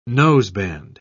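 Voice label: "noseband", British English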